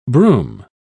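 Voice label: "brume", English, British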